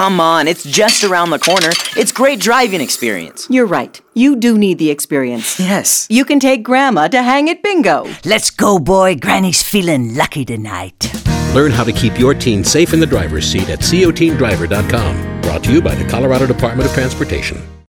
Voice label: General Safety Radio Spot, Passenger; colorado teen drivers; teen driving general